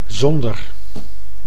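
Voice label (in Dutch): "zonder", Dutch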